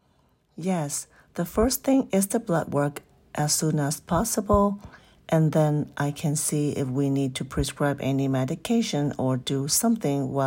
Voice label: Medical Certified Oral Cantonese Practice